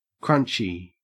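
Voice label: "crunchy", Australian English; pronunciations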